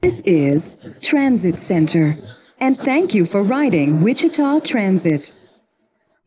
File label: vocals